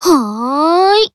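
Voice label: Voice Emo Reply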